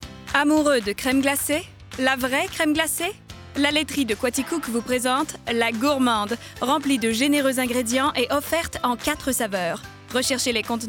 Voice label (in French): demo voix; gchampagne agence artistique